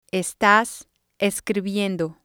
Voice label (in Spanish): "estas escribiendo", spanish